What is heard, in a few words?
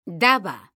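"daba", Spanish, female; mekanta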